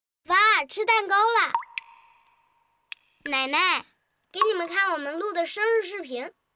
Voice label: 男 真男童; 真童配音

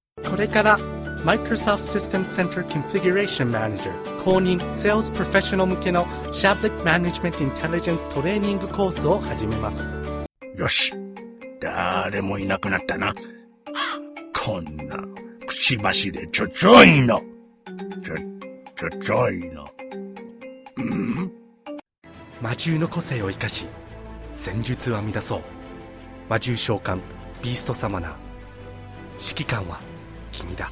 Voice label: 男 大音 Japanese

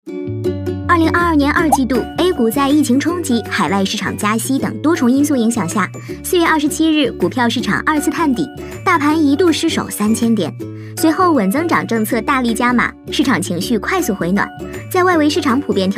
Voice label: 女国 欢快可爱; MG-飞碟说; 万家基金带你掘金二季报

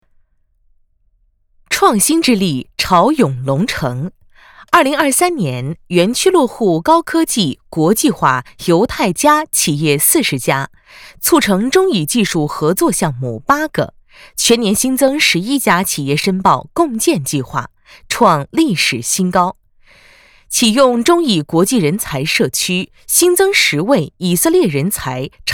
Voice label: 女 新闻; 新闻风格样音

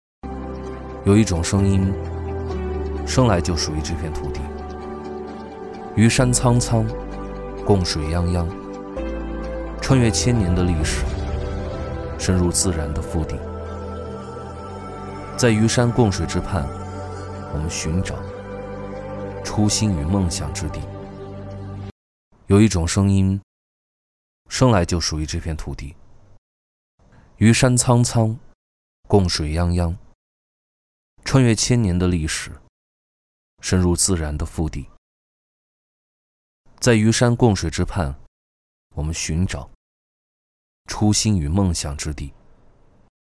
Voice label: 男 文旅宣传片; 于都; 文旅配音

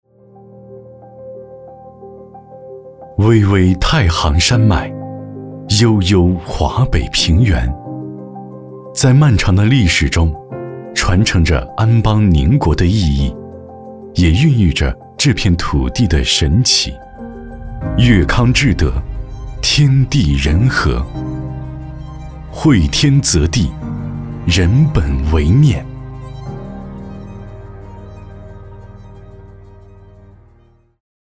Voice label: 男 形象宣传; 走心企业形象片样音; 悦康志德

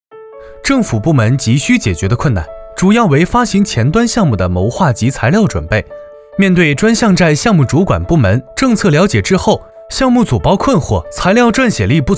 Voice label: 男 国 大音

